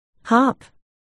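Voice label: "hop", spoken word, articulation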